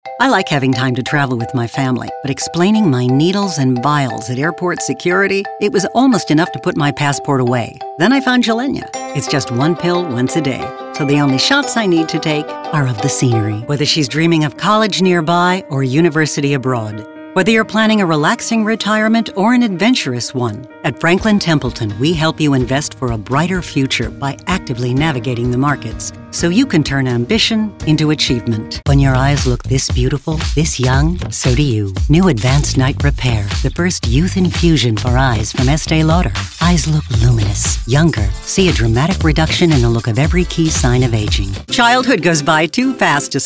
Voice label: Commercial